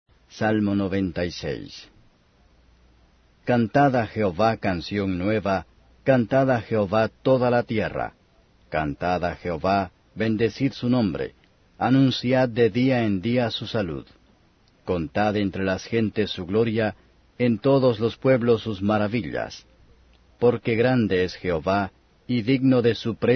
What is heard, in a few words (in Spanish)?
salmos spanish